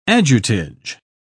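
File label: "ajutage", British English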